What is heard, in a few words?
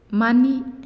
"Sit", Naasioi